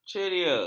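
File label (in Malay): "ceria", Malay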